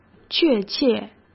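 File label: "确切", Mandarin Chinese; chinese flashcards; hsk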